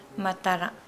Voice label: Nukuoro; unfasten undo untie

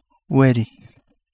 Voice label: Kapingamarangi; spiny urchin

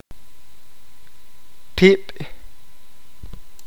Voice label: "carne", qeqchi

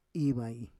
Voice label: "ivai", Roon; climb

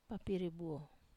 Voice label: "papiri buo", Wamesa

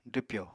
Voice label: "dwpyò", Apatani

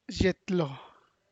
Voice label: zët loa, Santiago Matatlán Zapotec; pómulo

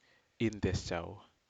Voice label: "intesjao", Aneityum

